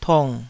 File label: "tung3", Taiwanese